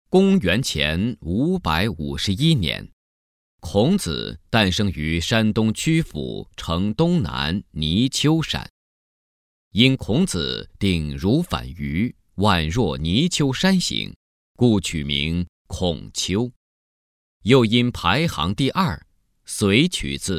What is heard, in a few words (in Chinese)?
男 专题, 国语男声配音; 孔子圣迹